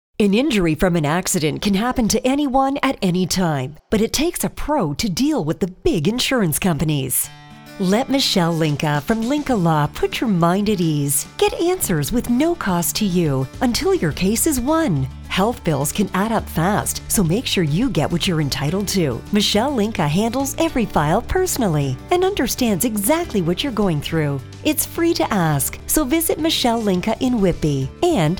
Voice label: Female